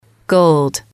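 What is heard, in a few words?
"gold", English, female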